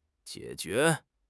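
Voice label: "jie3 jue2", Chinese; HSK3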